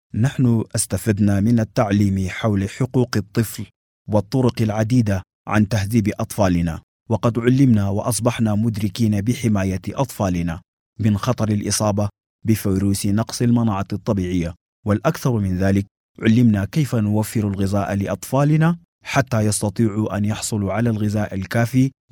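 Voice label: Sudanese Arabic, male, voice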